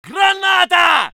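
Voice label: voice soviet infantry; Red Orchestra 41-45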